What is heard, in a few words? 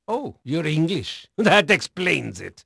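Dutch male, voice; Pirates Of The Caribbean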